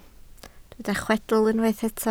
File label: "chwedl", Welsh